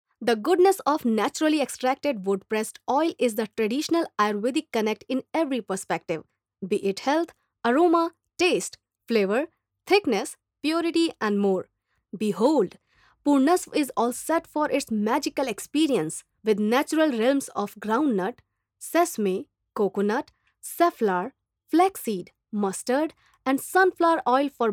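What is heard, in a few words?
English, female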